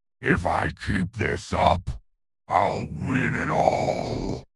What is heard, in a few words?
happy; fertig classic games; croc